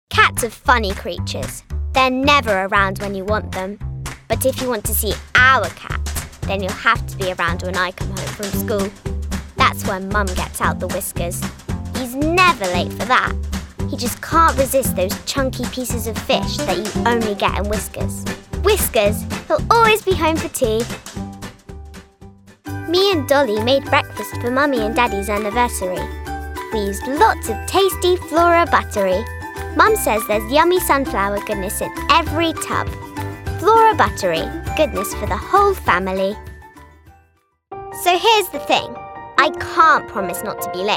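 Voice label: English UK, female, commercial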